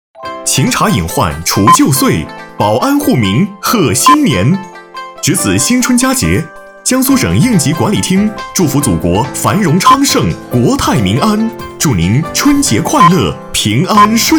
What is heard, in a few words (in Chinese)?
男 广告 喜庆大气活力 拜年; 江苏省应急管理厅拜年